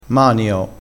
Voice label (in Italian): "manio", crespinese